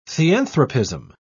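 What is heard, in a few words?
"theanthropism", British English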